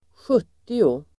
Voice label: "sjuttio", Swedish

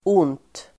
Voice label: "ont", Swedish

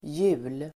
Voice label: "hjul", Swedish

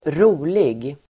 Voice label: "rolig", Swedish

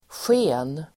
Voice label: "sken", Swedish

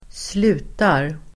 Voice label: "slutar", Swedish

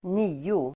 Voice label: "nio", swedish

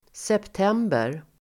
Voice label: "september", Swedish

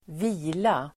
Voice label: "vila", Swedish

